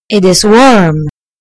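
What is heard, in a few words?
"warm", British English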